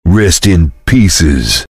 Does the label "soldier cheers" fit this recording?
Yes